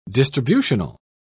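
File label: "distributional", British English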